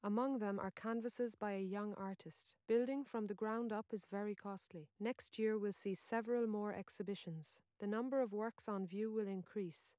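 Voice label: female, instantaneous; SiSEC11; underdetermined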